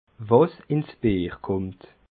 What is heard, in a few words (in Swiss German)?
"bierkummt", alsace